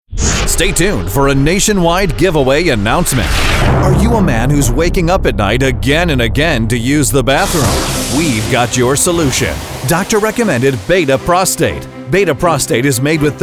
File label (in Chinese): 外籍男 零售促销广播广告 Infomercial